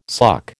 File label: "suck", American